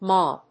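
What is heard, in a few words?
"Moll", English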